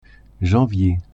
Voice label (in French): "janvier" French